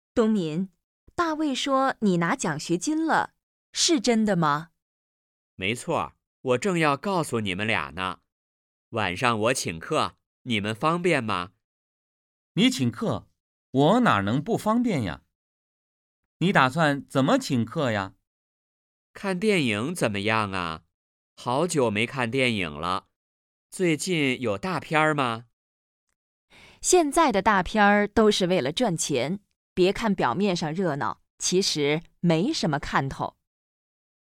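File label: Dialog, Chinese; Kitajskij azyk; Respublikanskaa olimpiada po ucebnym predmetam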